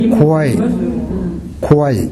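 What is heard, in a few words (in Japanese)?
"kowae", 南山形; 疲れる。体調不良。疲れて苦しい。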